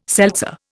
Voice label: "seltzer", Indian